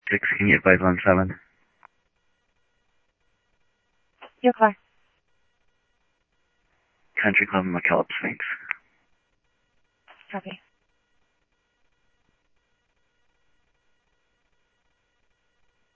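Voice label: Simulcast police department, scanner audio trunk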